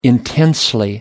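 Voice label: "intensely", British English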